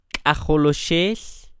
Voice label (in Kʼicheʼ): k'ajoloxel, Quiché; hijo (de hombre); child (of father)